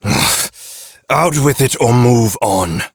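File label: Ambient Male Sweeper, Dialog; Duviri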